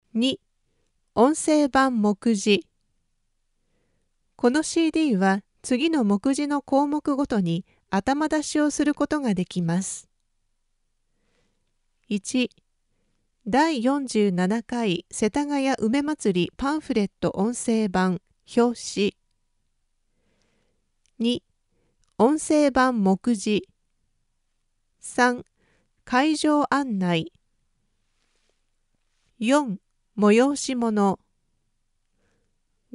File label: flyer voices